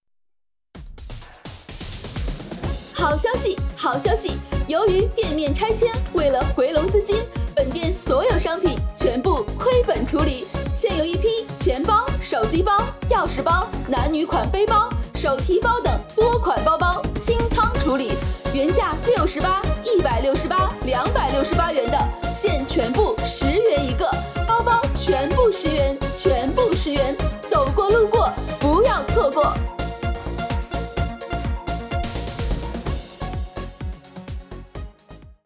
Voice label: 好消息店面促销广告

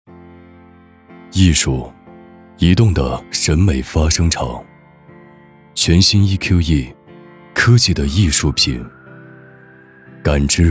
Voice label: 沉稳磁性

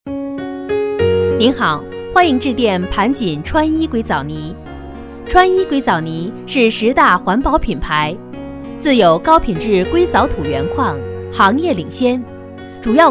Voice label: 彩铃 正式 端庄; 川一硅澡泥

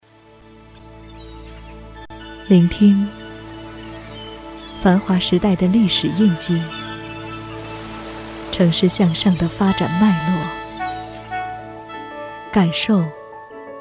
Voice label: 女广告沉稳大气

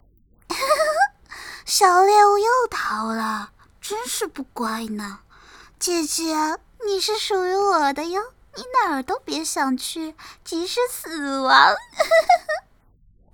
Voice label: 病娇

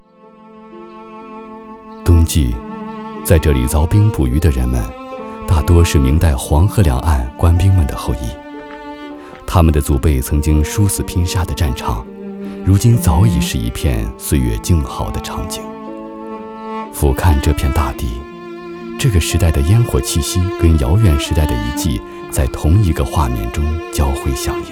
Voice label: 娓娓道来舌尖风格专题

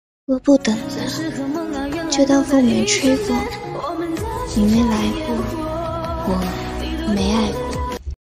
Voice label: 配音

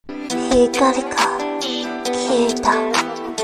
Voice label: 日语配音

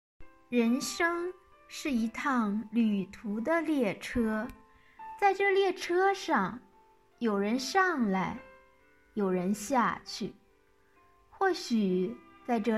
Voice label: 夜听朗诵

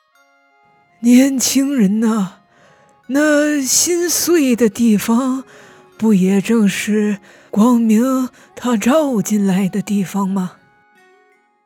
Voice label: 动漫广播剧智慧老奶奶

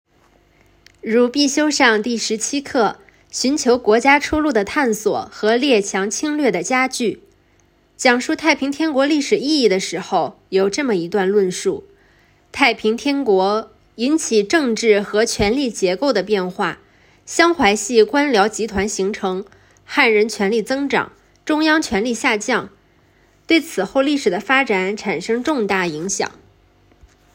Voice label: 历史课本配音

